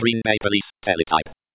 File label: GBPD TTY, Computer Voice; espeak